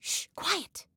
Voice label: Global Quiet, Female; Female 02